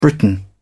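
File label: "briton", British English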